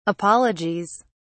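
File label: "apologies", British English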